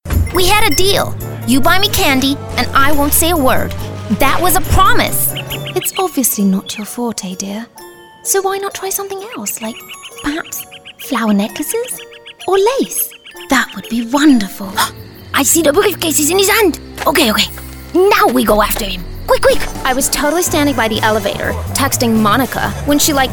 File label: Character Reel